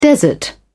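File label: "desert", British English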